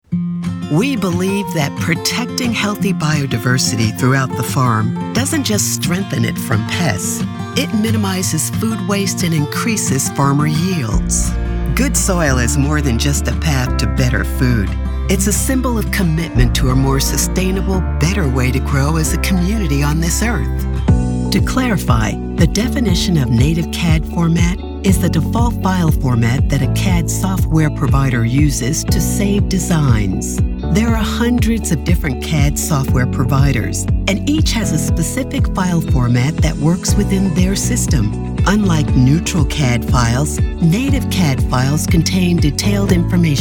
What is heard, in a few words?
narration